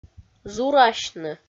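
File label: "зурасьны", Komi-Zyrian, female; audio dict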